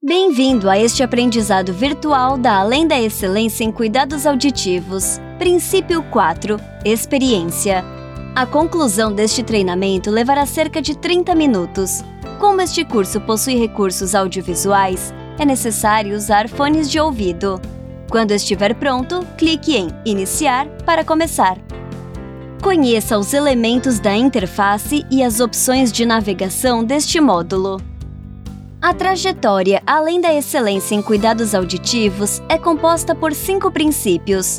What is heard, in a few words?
portuguese brazilian e-learning